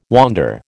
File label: "wander", American